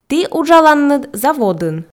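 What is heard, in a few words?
"ті уджаланныд заводын", Komi, female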